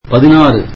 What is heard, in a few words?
tamil sixteen